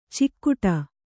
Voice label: "ಚಿಕ್ಕುಟ", Kannada

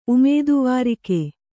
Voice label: "ಉಮೇದುವಾರಿಕೆ", Kannada

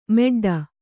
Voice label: "ಮೆಡ್ಡ", Kannada

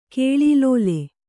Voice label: "ಕಾಲಲೀಲೆ", Kannada

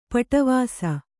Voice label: "ಪಡಿವೆಸ", Kannada

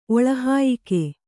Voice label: "ಒಳಹಾಯಿಕೆ", Kannada

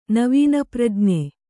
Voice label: "ನವೀನಪ್ರಜ್ಞೆ", Kannada

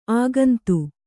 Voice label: "ಅಗುಂತಿ", Kannada

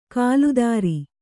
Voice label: "ಕಾಲ್ದಾರಿ", Kannada

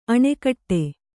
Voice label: "ಅಣೆಕಟ್ಟೆ", Kannada

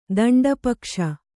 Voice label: "ದಂಡಪಕ್ಷ", kannada

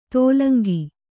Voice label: "ತೋಲಂಗಿ", kannada

